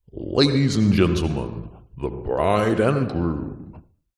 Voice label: human voice male uk wedding annoucement ladies and gentlemen the bride and groom